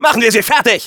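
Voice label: battle cry, German; Scout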